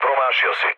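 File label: Serbian bomb failure mood low, speech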